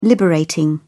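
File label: "liberating", British English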